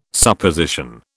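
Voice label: "Supposition", British English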